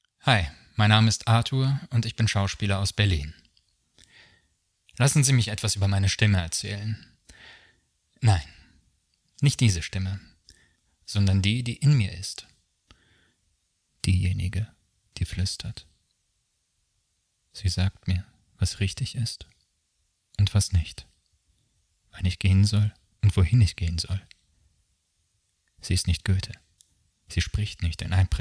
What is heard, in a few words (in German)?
About My Voice German